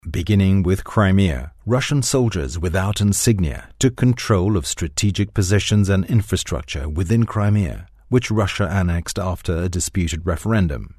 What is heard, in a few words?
English international documentaries